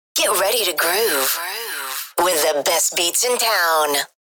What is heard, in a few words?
Vocals radio voice sweeper get ready to groove